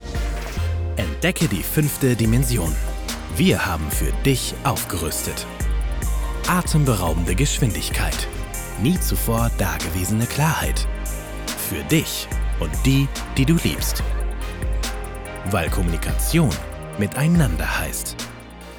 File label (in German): Werblich; 5G